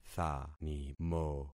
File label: "thanimo", concat syllables; Affixing experiment